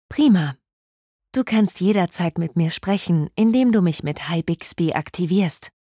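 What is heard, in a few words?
wake up succeed German; Bixby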